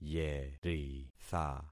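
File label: "yeritha", concatenated syllables; Affixing experiment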